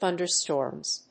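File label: "thunderstorms", English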